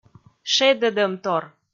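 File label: "шедӧдӧмтор", Komi, female; kpv audio dict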